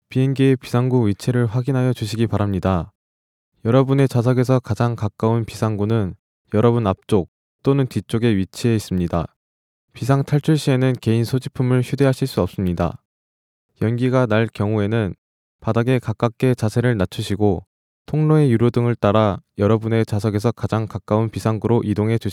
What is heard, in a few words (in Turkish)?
Korece Erkek